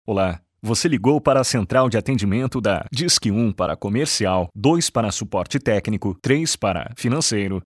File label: phone system